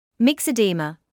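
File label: "myxoedema", British English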